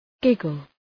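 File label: "giggle", English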